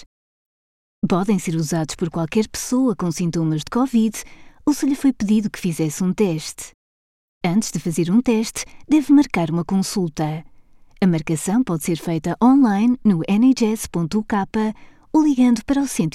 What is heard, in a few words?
portuguese coronavirus testing centres in westminster